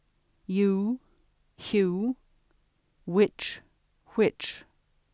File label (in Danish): engelsk you hue; [ju: j̊u: wɪt͡ʃ ʍɪt͡ʃ]